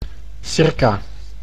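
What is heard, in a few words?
"circa", Dutch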